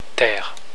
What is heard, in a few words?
"terre", french